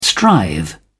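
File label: "strive", British English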